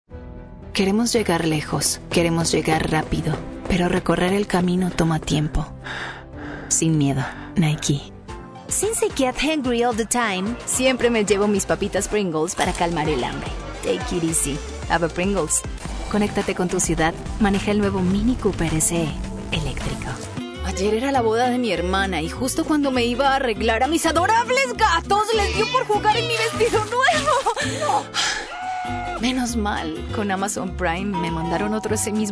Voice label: Spanish Latin American commercial